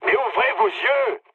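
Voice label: player friendly fire, mood high, French; War Thunder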